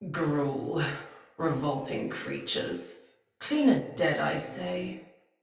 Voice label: Patrol Spoken, Soldier Female; Battle; Barks; Dialog; Sinecure Soldier Female A

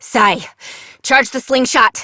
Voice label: Operator Voice; New War; Torpedo

